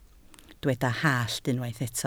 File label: "hallt", Welsh